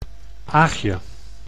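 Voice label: "Aagje", Dutch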